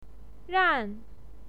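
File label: "ran4", Mandarin Chinese pinyin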